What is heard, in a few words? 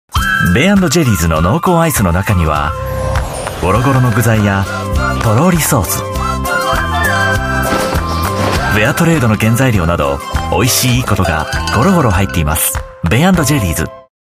Japanese, Japanese Male; Ben Jerrys Ice Cream